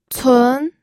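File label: "cun", pinyin, second tone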